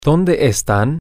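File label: where Are, Spanish, male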